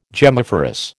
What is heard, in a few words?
"gemmiferous", American